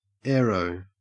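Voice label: "aero", Australian English; pronunciations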